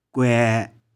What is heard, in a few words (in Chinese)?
"kuae1", 玉環